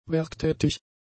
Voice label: "werktätig", German; adjectives

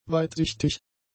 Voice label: "weitsichtig", German; adjectives

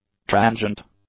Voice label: "transient", English; adjectives

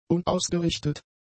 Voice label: "unausgerichtet", German; adjectives

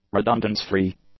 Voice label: "redundance-free", English; adjectives

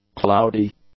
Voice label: "cloudy", English; adjectives

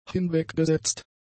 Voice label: "hinweggesetzt", German; adjectives

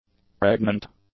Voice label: "pregnant", english; adjectives

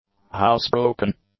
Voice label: "housebroken", English; adjectives

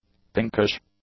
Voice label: "pinkish", english; adjectives

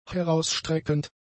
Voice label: "herausstreckend", German; adjectives

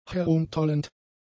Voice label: "herumtollend", German; adjectives

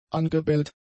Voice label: "angebellt", German; adjectives

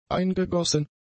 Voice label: "eingegossen", German; adjectives

